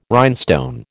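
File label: "rhinestone", American English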